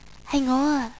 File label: Auth, Cantonese girl; ICQ